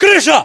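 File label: location rooftop, battlechatter, Russian; localized english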